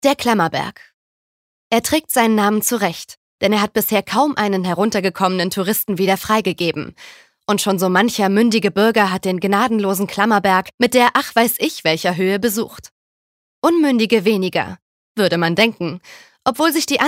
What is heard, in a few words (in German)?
Kommentar Neutral; Werbung/ Voice Over